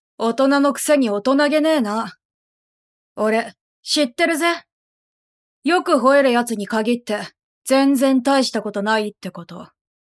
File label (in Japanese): 男の子（クール）